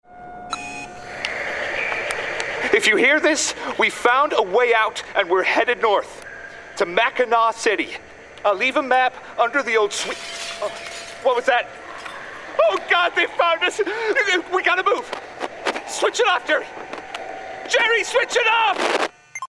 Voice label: Gaming Journal US